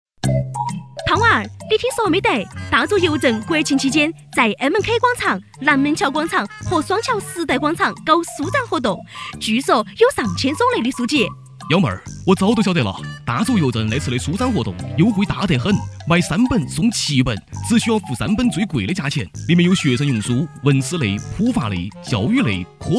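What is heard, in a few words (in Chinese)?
重庆 女 重庆方言 邮政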